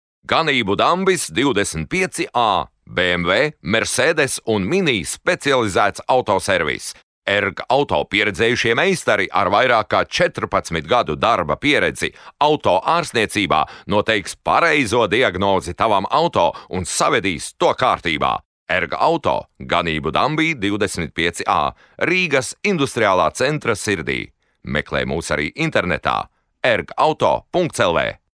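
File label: Latvian male commercial; voice demos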